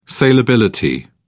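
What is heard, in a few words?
"salability", British English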